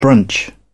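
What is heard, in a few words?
"brunch", English, British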